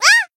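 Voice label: Voice damaged, Japanese; thillelille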